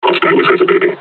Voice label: taunt, combine charger; Half Life Alyx

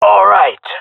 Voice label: cheer, jungle male; player vo; Counter Strike Model Pack; CS:GO

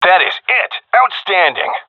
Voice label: cheer; Counter Strike; CS:GO; seal diver

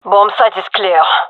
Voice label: bombsite clear, gendermerie fem; vo

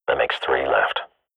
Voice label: radio bot kill count, swat

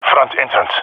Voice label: front, male epic; player vo; Counter Strike Model Pack; CS:GO

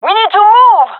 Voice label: request move, gendermerie fem; vo

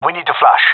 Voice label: request flash, male; vo

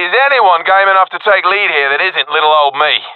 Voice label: request leader, professional epic; vo; Counter Strike Model Pack; CS:GO

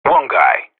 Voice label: radio bot kill count, vo professional

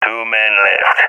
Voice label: enemies left two, balkan; balkan epic

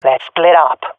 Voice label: request spreadout, swat fem; vo; agents; Source Model Packs; Counter Strike Model Pack; CS2

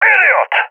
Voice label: taking fire friendly, balkan; balkan epic

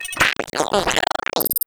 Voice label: egg excited; player; Portal 2; eggbot

